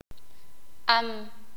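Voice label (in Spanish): "araña", sakapulteko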